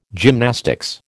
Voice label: "gymnastics", American